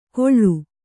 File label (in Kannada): "ಗೊಳ್ಳು", Kannada